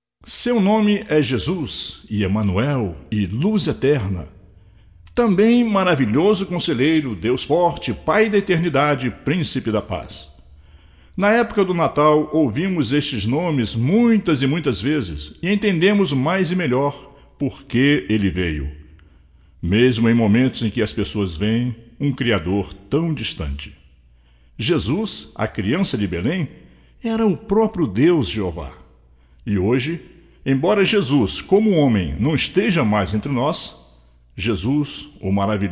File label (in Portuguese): Narração